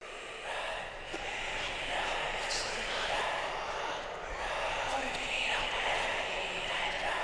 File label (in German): leises flustern